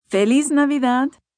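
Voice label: Merry Christmas, Spanish, female; Holiday Sound Effects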